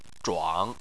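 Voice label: "zhuang", Mandarin Chinese, third tone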